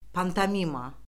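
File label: "pantomima", Azerbaijani; Orfoqrafiya Lüğəti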